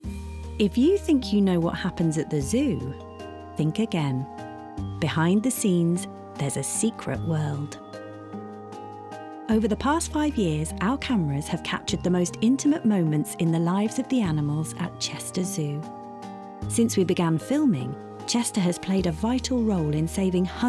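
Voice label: Documentary Reel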